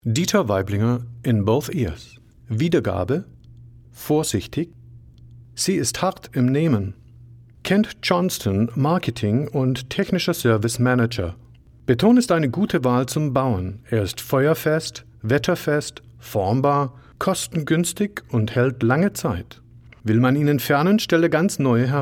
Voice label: german commercial; GERMAN » Conversational and unpolished German voice.